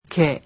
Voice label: "ke", japanese alphabet